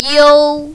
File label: "you1", mandarin, female